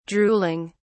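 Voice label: "drooling", British English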